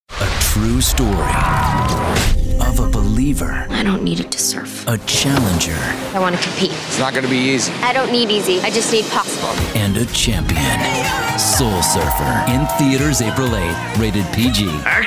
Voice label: trailer